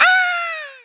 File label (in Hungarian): "nooo", Hungarian; Worms Armageddon